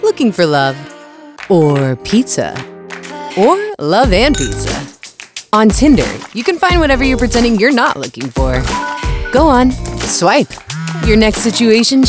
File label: female voiceover commercial; tinder